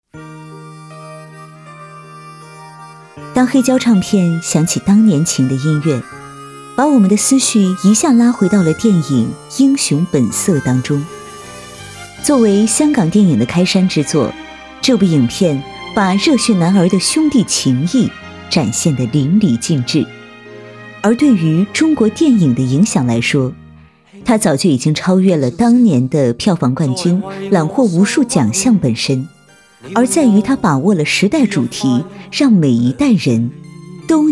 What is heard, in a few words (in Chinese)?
独白 电影自然讲述